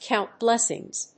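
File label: "count blessings", English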